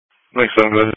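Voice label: Emergency Medical Services Dispatch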